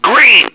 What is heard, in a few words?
green, radio male